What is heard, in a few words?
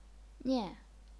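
"nian", fourth tone, chinese pinyin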